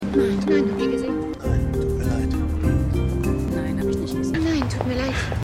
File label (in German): Leute Auf Strasse; Menschen in Angst